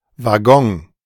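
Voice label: "Waggon", German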